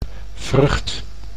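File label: "vrucht", Dutch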